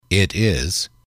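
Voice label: he she it is, English, male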